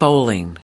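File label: "bowling", US English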